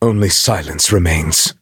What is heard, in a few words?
victory, en; Cookie Run